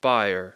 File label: "buyer", English